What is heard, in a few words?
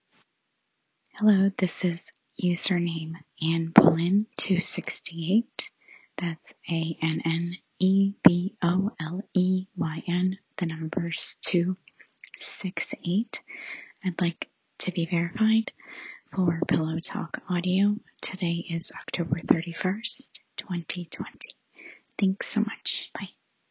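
Verification, Female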